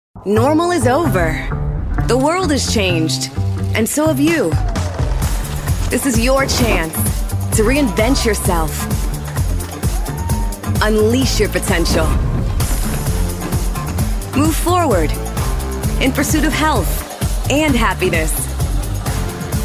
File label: commercial women